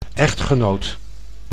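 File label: "echgenoot", Dutch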